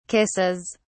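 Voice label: "kisses", British English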